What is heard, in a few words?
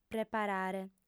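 "preparare", Sardinian, female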